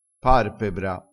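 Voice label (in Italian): "parpebra", dialettale crespinese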